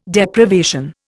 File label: "Deprivation", Indian